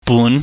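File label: "bun", Cantonese, mid rising tone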